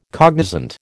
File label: "cognizant", American